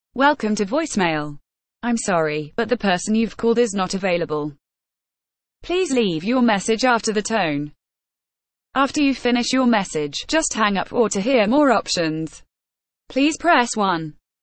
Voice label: female, UK